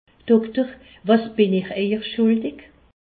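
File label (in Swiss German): "schuldig", Alsatian; medecine; docteur quest ce que je vous dois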